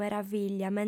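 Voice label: "meravìglia", female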